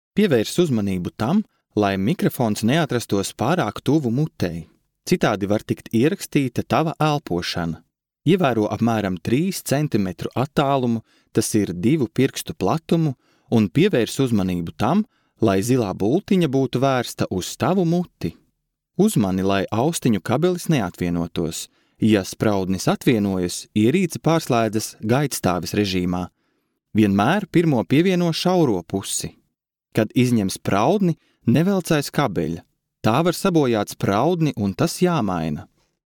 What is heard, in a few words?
male latvian voice over talent narrative